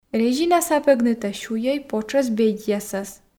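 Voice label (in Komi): резина сапӧгныто сюйой потшӧс бедьясас, Komi, female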